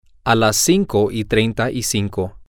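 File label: Spanish, male; five Thirty Five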